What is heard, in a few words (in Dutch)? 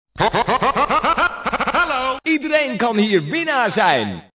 Jingle iedereen kan winnaar zijn